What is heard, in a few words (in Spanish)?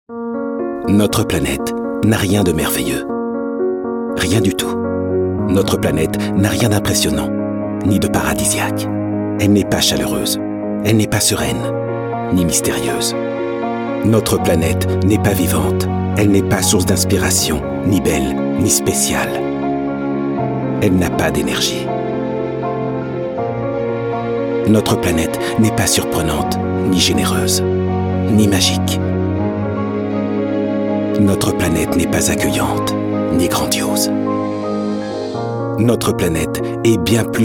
comercial francés